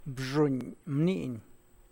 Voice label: The child ran, Zapotec; Bzhuny mniny.; (Spanish) El niño corrió.